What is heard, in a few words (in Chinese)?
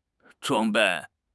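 "装备", Chinese; HSK6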